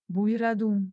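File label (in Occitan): "boirador", Gascon; Prêts pour l’Òc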